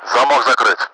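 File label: lock closed, Russian; DCS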